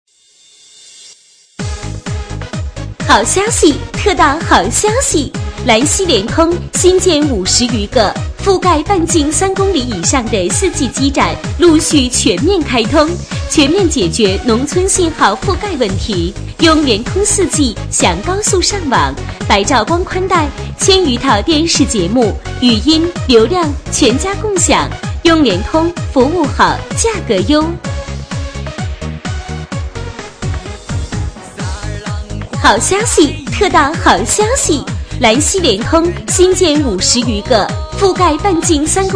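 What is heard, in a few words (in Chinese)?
女促销; 兰西联通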